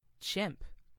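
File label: "chimp", English; FoodChain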